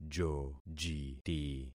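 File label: "jojiti", concatenated syllables; Affixing experiment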